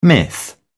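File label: "myth", British English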